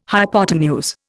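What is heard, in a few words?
"hypotenuse", Indian English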